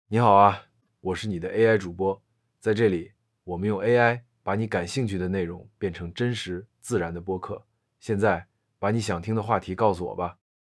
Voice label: CN Man Beijing